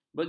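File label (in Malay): "begitu", Malay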